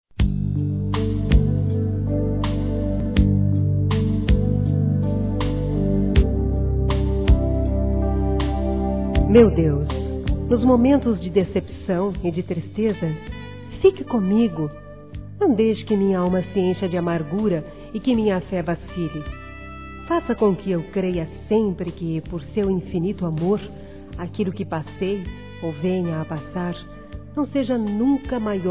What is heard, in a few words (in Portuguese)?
ORAÇÃO DA SABEDORIA, Gospel, fem; Telemensagens; Telemensagens 2017